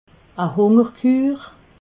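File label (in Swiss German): "hungerkur", Alsatian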